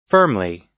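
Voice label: "firmly", American English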